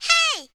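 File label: "haaai", Japanese female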